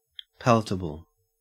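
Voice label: "palatable", Australian English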